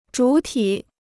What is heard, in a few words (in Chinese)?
"主体", Chinese